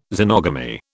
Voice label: "xenogamy", British